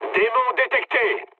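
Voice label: French enemy detected mood med; War Thunder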